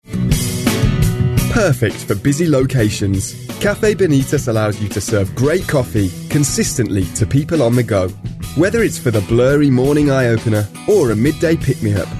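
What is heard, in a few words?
English, British, male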